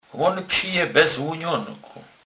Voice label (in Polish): "lunonek", kurpiow